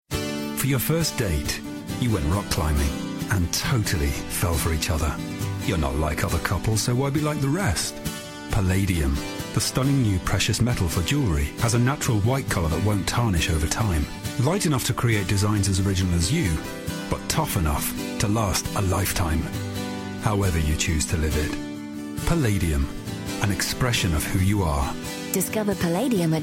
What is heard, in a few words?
Jewellery advert; John Bull